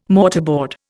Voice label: "mortarboard", Indian